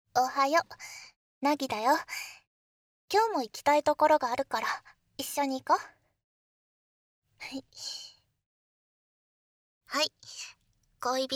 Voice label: 耳舐め乳首責め; 効果音なし

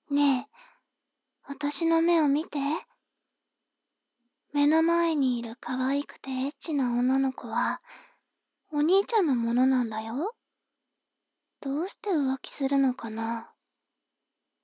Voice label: 妹 台詞

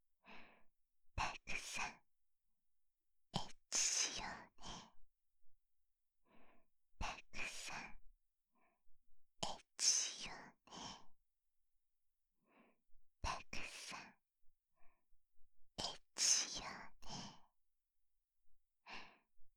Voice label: たくさん、エッチしようね, ASMR, 左耳

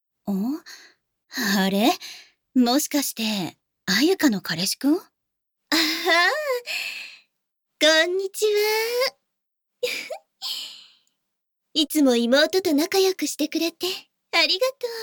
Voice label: 赤ちゃん言葉 マッサージ; 彼氏くんがその気になるまで、赤ちゃん言葉でドスケベ誘惑マッサージ

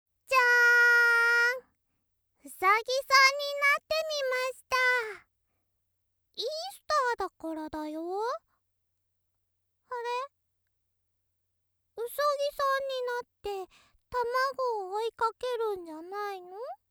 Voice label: ロリ イースターだよ

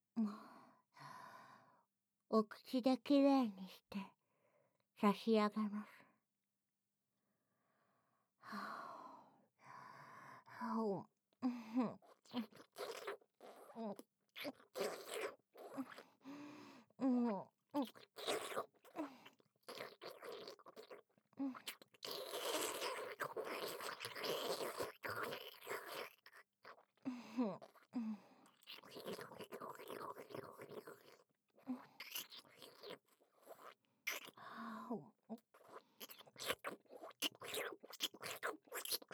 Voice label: お掃除, ASMR

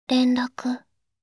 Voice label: れんらく…, システムボイス; Windows等向けシステムボイス

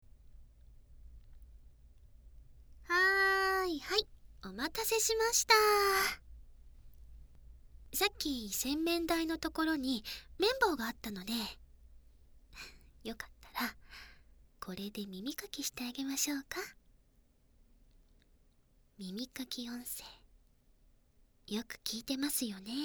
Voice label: 耳かきしてあげましょうか？(効果音無し); コスプレイヤーオフパコ; コスプレ売り子してくれたレイヤーとのイベント帰りオフパコから耳かきと朝チュンまで

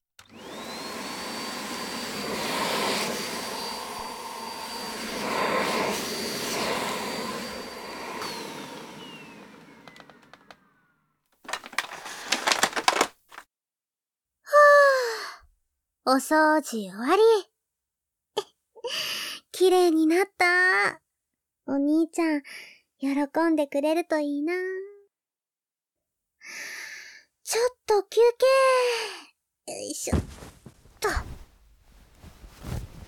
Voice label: おかえり, ASMR

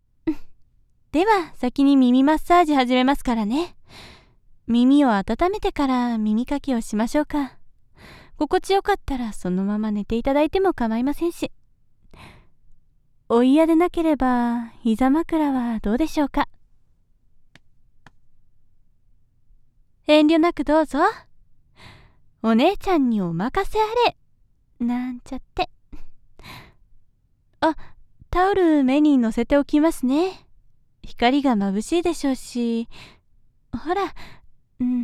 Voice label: 素手耳マッサージ, ASMR; 巴

